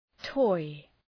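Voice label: "toy", English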